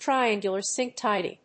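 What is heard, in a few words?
"triangular sink tidy", English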